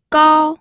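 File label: "gao", Mandarin Chinese, first tone; pinyin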